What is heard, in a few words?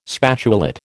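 "spatulate", American English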